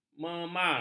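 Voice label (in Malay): "memar", Malay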